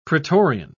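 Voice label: "pretorian", British English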